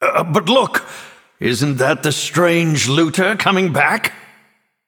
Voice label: Male Nightborne